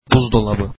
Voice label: refrigerator, turkish